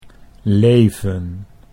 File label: "leven", Dutch